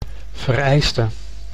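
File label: "vereiste", Dutch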